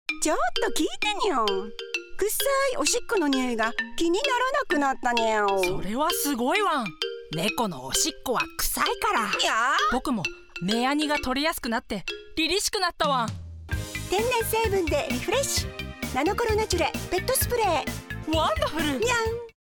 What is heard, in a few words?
radio cm